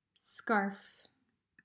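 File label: "Scarf", English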